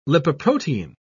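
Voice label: "lipoprotein", British English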